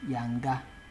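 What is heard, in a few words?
"yanga", Nigerian Pidgin, male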